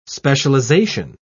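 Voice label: "specialisation", British English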